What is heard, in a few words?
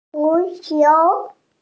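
"zu qiu" Chinese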